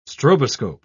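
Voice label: "stroboscope", British English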